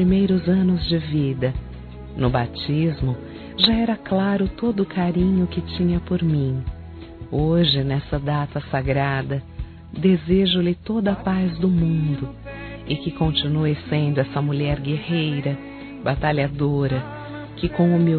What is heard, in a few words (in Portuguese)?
Natal Para Madrinha Feminino; Telemensagens; Natal e Ano novo